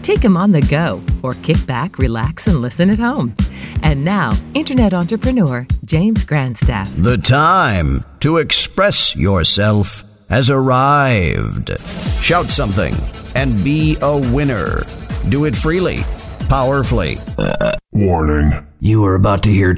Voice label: podcast